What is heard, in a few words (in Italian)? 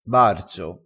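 "barzo", dialettale crespinese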